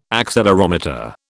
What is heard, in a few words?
"accelerometer", British